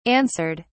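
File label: "answered", British English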